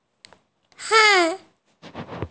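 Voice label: "kan4", Mandarin Chinese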